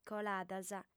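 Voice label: "coladas", female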